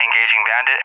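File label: "engaging bandit", English; USA; DCS; Allied Flight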